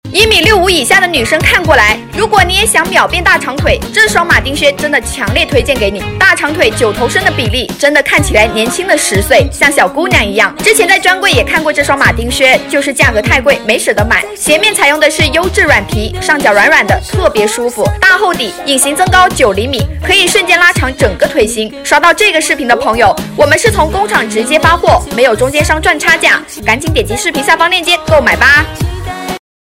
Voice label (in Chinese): 马丁靴配音